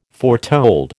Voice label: "foretold", American